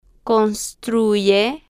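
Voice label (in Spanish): "construye", female, slow, Spanish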